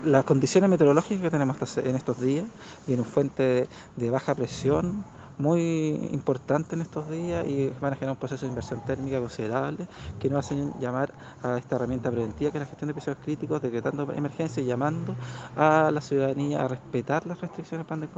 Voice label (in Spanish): Cuña; rige nuevo episodio de emergencia ambiental en osorno